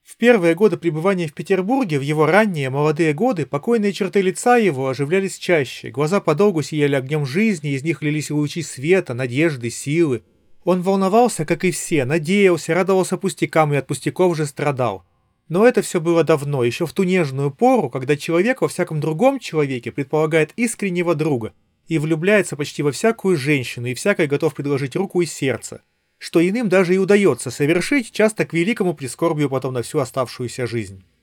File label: Recorder; Запись на профессиональный рекордер; Huawei FreeBuds Pro 4